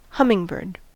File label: "hummingbird", us